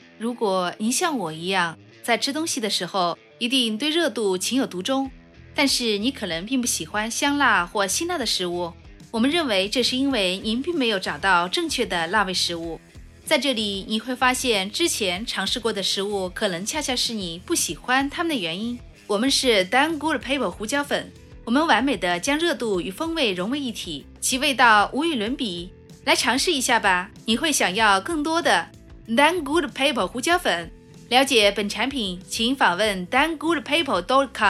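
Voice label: commercial, Chinese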